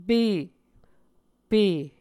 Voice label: "pe", Muscogee

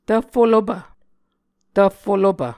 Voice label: "tvffolopv", Muscogee

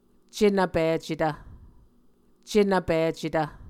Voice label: "cenvpicetv", Muscogee; Mvskoke Words with Voicing Consonants; A Dictionary of Creek/Muskogee; SIL International; Onvkv Ohhvyayices

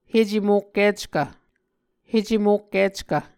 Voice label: "hecemokkickv", Muscogee; Mvskoke Words with Voicing Consonants; A Dictionary of Creek/Muskogee; SIL International; Onvkv Ohhvyayices

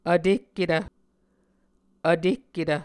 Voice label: "vtehketv", Muscogee

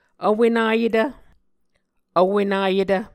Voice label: "vwenayetv", Muscogee

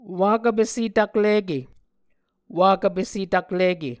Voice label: "wakv pese taklike", Muscogee

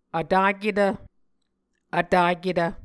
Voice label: "vtaketv", Muscogee

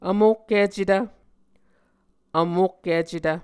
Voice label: "vmokkicetv", Muscogee; Mvskoke Words with Repeated Consonants; Martin, Jack B., and Margaret McKane Mauldin. 2023. A Dictionary of Creek/Muskogee

